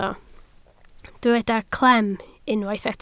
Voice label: "clem", Welsh

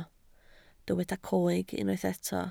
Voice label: "coeg", Welsh

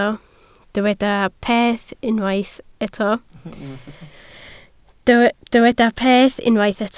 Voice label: "peth", Welsh

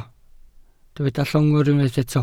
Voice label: "llongwr", Welsh

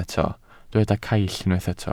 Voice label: "caill", Welsh

